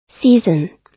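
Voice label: "season", US English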